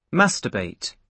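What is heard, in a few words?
"masturbate", British English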